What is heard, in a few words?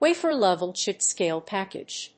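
"Wafer Level Chip Scale Package", English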